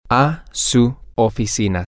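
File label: to your office, Spanish, male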